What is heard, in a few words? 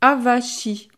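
"avachi", French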